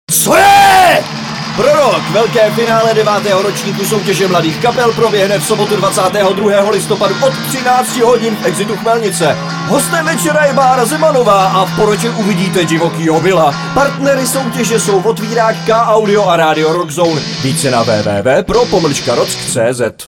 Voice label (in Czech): reklama; pro rock 2008